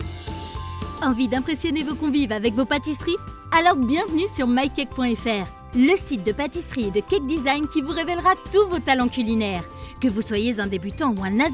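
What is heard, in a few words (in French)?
publicite, comédienne voix-off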